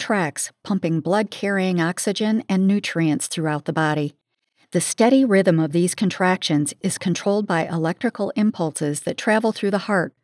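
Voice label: Atrial Fibrillation, voice over demos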